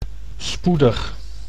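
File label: "spoedig", Dutch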